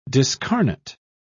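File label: "discarnate", British English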